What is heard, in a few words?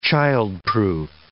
"childproof", English